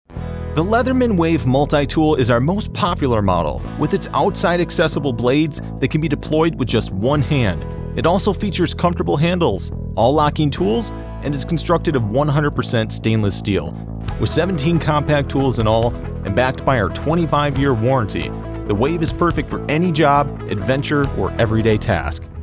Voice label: NARRATION